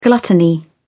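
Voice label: "gluttony", English, British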